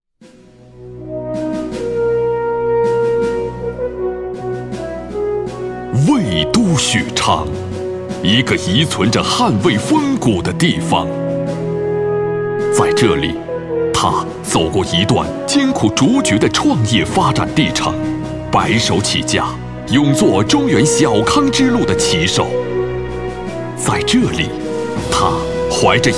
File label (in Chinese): 男, 专题; 宏伟集团